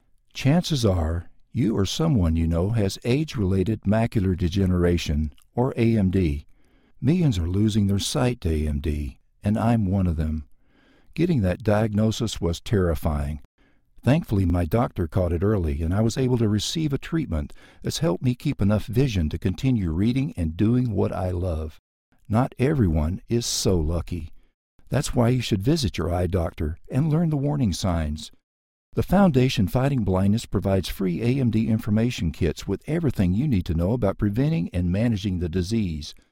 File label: Public Service Announcement